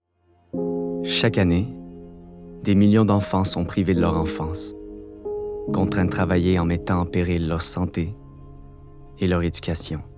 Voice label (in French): Publicite UNICEF, voix acteur comedien